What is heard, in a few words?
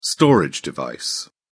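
"storage device", English, British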